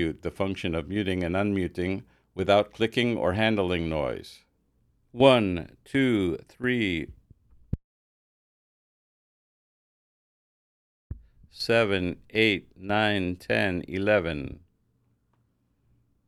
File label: PodMic USB mute test